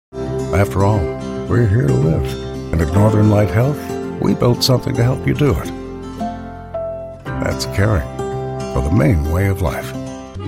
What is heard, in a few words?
Narration; TaDa